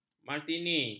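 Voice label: "martini", Malay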